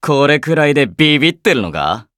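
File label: victory, Japanese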